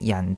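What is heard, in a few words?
"jan4", Cantonese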